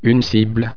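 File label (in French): "cible", French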